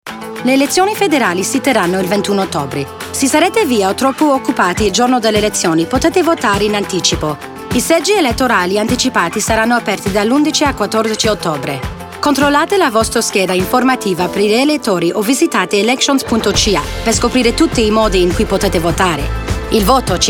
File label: Italian, radio advert; Early Voting Option